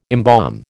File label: "embalm", American